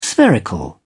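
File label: "spherical", British English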